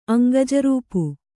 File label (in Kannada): "ಅಂಗಜರೂಪು", kannada